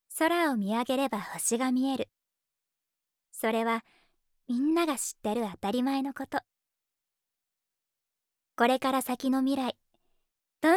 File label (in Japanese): ナレーション